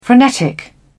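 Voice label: "frenetic", British English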